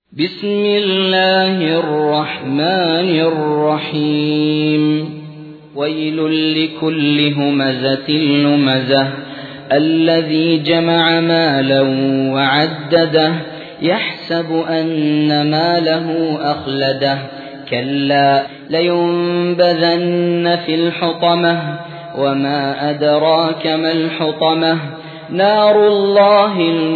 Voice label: ترتیل; سوره همزه

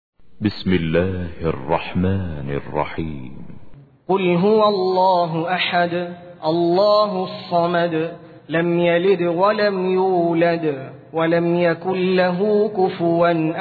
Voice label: ترتیل; سوره اخلاص

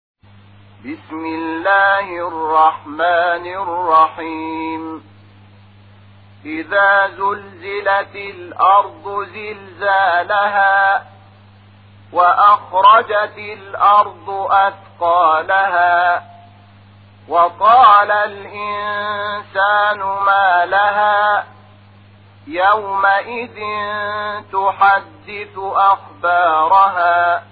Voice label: ترتیل قرآن کریم سوره زلزله; محمود شحات انور

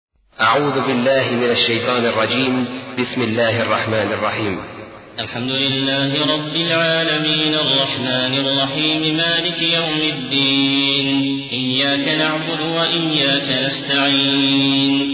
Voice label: ترتیل; عبدالعزیز الأحمد; سوره فاتحه الکتاب حمد

